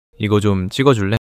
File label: neutral